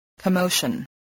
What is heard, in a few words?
"commotion", voice